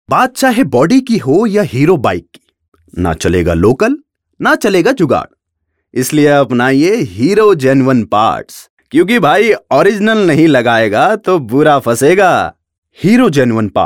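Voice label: Hero hindi male voice over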